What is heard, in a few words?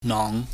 "nong", Chinese